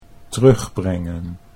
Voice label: "terugbrengen", Dutch